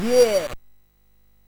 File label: Bouncy Balls speech, MOS 6581